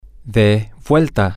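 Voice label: turn, spanish, male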